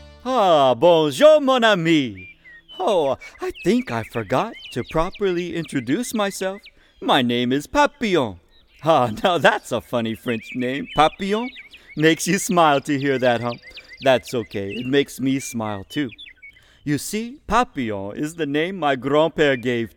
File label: Narration